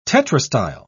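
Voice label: "tetrastyle", English, British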